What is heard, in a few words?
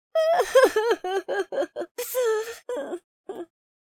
sad, Hippy